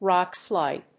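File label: "rockslide", English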